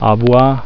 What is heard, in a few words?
f speak